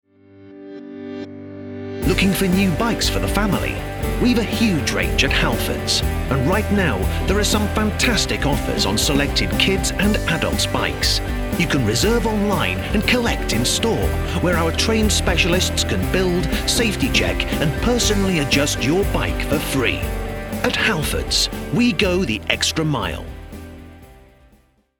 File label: ad